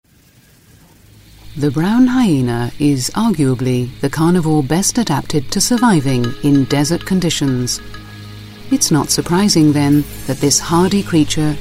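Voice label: English, Narrative, Irish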